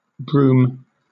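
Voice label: "brume", British English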